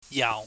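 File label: "yau", Cantonese, low falling tone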